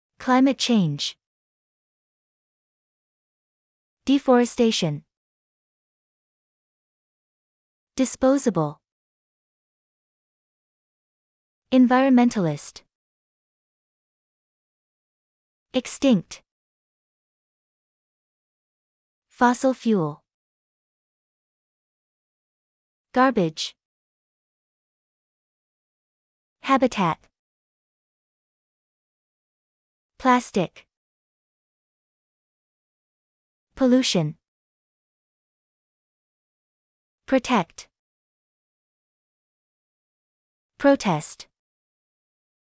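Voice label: American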